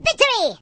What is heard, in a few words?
"Victory", voices